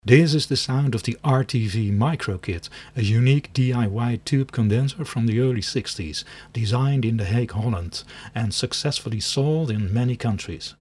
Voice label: RTV mictest UK

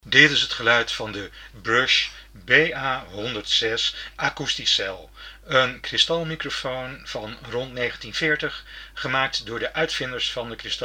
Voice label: Brush BA 106 Acousticel sound NL

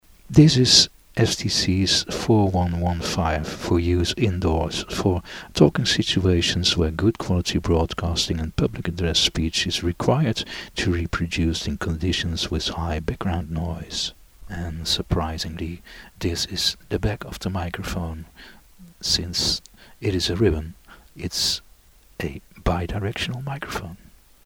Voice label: STC 4115 UK

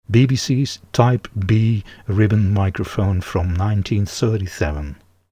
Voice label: BBC Type B sound UK

